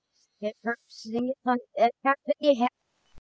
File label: reverse message